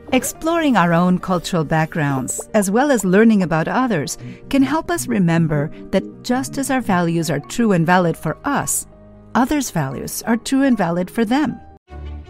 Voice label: Hispanic Accented English